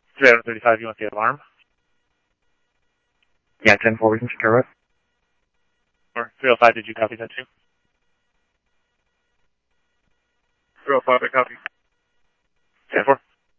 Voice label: Police, scanner

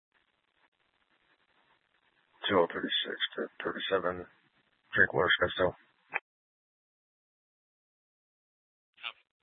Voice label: PD Dispatch

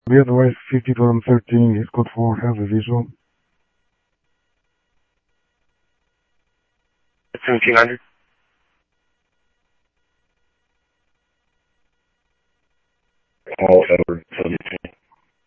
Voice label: scanner audio; Thompson Peak; MVD Enforce; ArizonaDepofTran

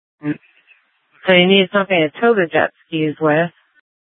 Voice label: Sheriff, scanner

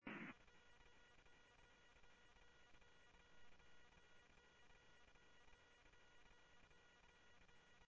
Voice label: Sheriff-WestCnty, scanner audio; MCSO - WEST; ThompsonPeakScot

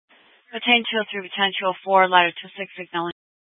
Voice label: Fire Dpts VHF 154.800 Patch, scanner; TOPAZ PD (TRWC); Thompson Peak

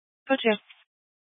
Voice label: Fire Departments Mesa Simulcast; TOPAZ

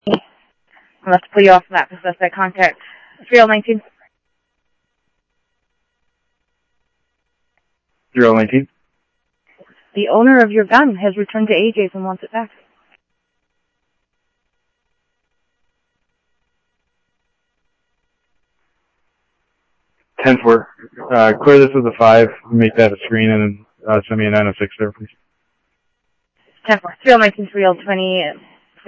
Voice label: police, scanner audio; SCTSDLE PD - PD2; ScottsdalePolice; ThompsonPeakHigh

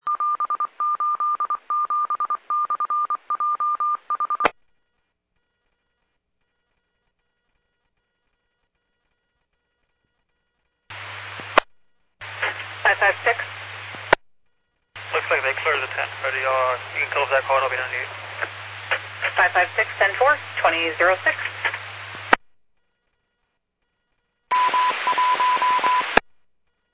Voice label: Medevac, scanner; PHIAIREVACHelRad

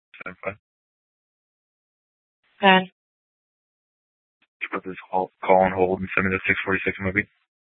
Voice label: Dispatch, Mesa PD

